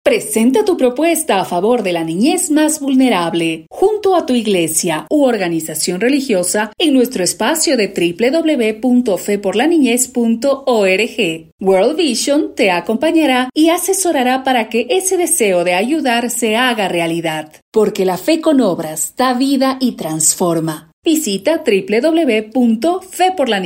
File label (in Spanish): Locucion